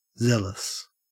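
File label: "zealous", Australian English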